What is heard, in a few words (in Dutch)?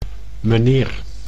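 "meneer" Dutch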